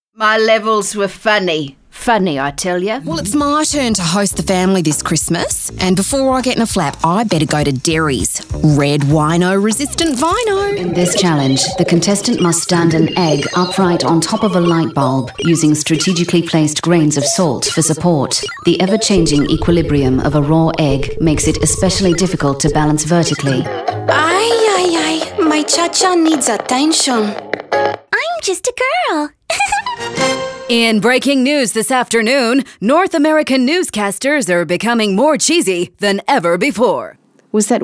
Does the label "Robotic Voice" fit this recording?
No